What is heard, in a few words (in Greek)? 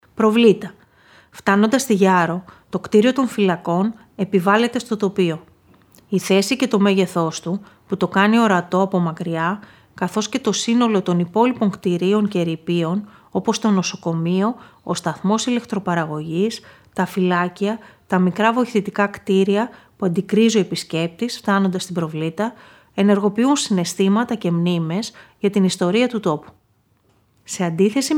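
Προβλήτα, Ηχητική ξενάγηση; Γυάρο; Προβλήτα Άνοιξη (ΗΞ)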